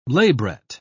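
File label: "labret", British English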